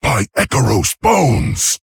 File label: Male Tauren WOW